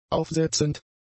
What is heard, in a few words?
"aufsetzend", German; adjectives